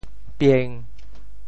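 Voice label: "pieng1", Teochew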